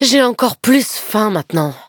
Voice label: defeat, fr